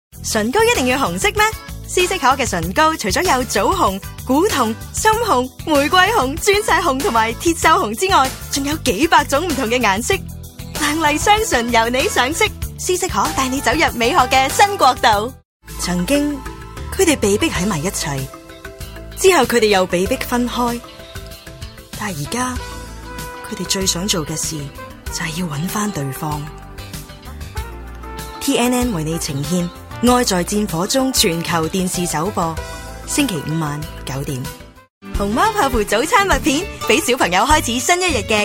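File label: Canto TVC, Cantonese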